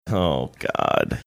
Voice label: quietly saying oh god